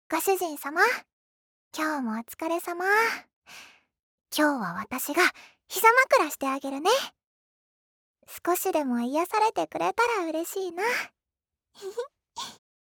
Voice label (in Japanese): シチュエーションボイス